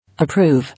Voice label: "Approve", English

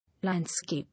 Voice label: "Landscape", English

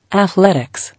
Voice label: "Athletics", English

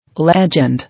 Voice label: "Legend", English

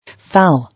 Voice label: "Fowl", English

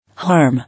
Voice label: "Harm", English

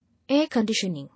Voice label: "Air-conditioning", English

